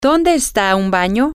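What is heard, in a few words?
where is a bathroom, Spanish, female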